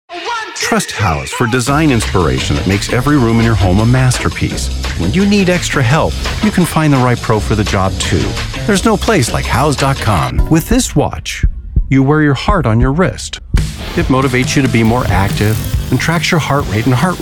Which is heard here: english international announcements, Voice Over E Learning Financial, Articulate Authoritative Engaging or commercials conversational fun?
commercials conversational fun